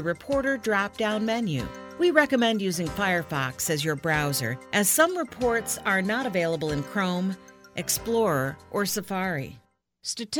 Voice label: English American training e-learning